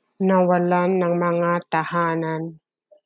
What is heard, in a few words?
Tagalog; lost their homes - nawalan ng mga tahanan